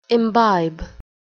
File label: "imbibe", English